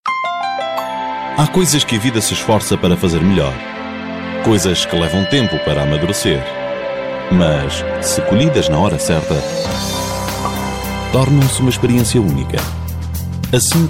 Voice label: portuguese m commercial; voice demos